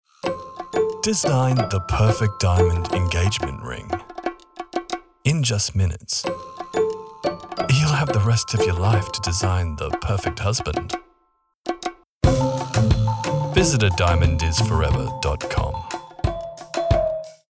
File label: VO COMMERCIAL; DIAMONDS FOREVER